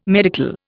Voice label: "medical", Indian